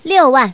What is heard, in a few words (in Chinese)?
"6wan", 麻将, 女; 欢乐麻将